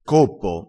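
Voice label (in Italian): "coppo", dialettale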